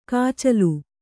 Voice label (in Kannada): "ಕೊಚ್ಚಲು", kannada